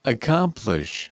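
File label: "accomplish", USA, male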